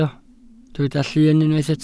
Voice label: "llun", Welsh

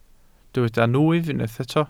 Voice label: "nwyf", welsh

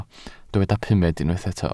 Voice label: "pumed", welsh

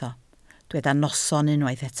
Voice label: "noson", Welsh

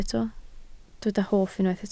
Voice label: "hoff", Welsh

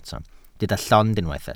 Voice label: "llond", Welsh

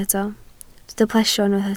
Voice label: "plesio", Welsh

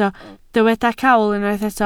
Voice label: "cawl", Welsh

